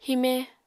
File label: "hime", apatani